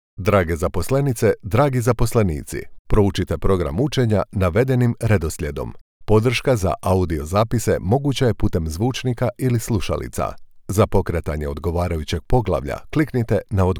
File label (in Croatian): E LEARNING Interakcija CRO